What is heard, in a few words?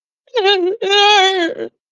voice message